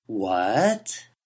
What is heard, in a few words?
speech Falling Rising; pitch change; Macaulay Library; Larkwire